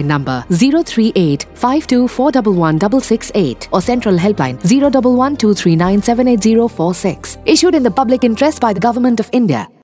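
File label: Cough Radio English, Radio spot; Manipur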